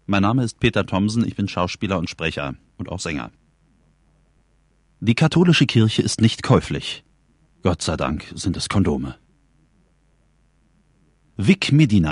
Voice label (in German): sprachdemo